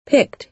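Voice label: "picked", American English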